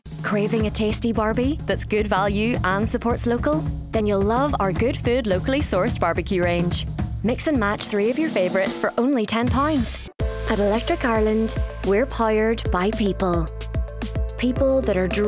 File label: Commercial; Warm, versatile and engaging.; Belfast/London based actress